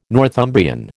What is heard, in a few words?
"Northumbrian", American